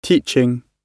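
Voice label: "teaching", British English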